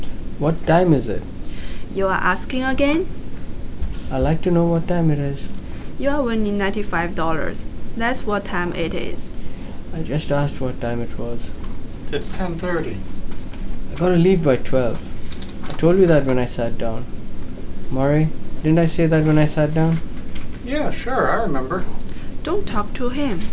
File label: three person mono mic; stereo mic array